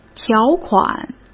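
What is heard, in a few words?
"条款", Mandarin Chinese; chinese flashcards; hsk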